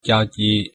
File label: goodbye, vietnamese